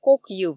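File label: "кокйыв", Komi, female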